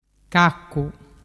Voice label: "caccu", dialettu

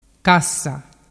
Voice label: "cassa", dialettu

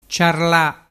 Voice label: "ciarla", dialettu

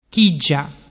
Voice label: "chiggia", dialettu

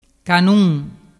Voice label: "canun", dialettu

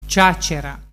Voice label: "ciaccera", dialettu

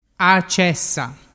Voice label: "cessaa", dialettu

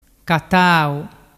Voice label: "catau", dialettu

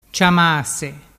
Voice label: "ciamase", dialettu

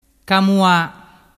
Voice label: "camua", dialettu

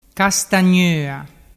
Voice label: "castagnoa", dialettu

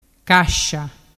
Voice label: "cascia", dialettu

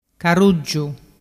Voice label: "caruggiu", dialettu

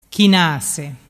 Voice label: "chinase", dialettu

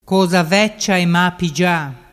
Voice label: "cosavecciaemapigia", dialettu

